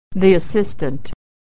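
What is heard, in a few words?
"assistant", American English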